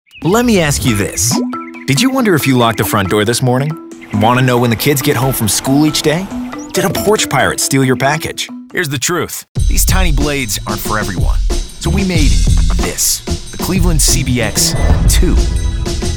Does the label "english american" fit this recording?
Yes